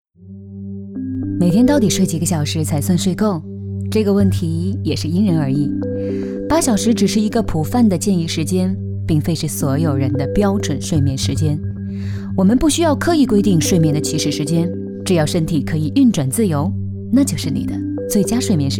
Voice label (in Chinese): 轻松科普